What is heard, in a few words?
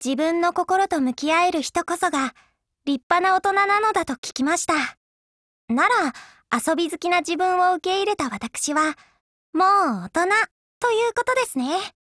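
DIALOGUE Japanese; FAMAS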